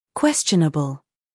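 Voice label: "scandal", American; questionable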